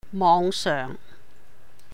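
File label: "móhng seuhng", Cantonese; online; 網上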